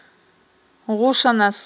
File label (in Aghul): "гъушанас", Aghul